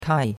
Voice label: "kai4", chinois; Cours-de-Chinois